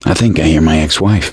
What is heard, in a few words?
heard witch, survivor voice; gambler